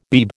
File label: "Beeb", American